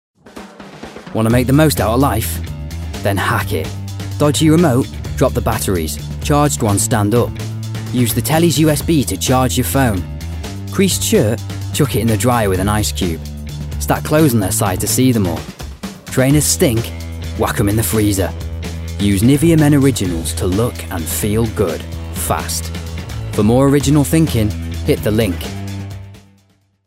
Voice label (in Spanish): Masculino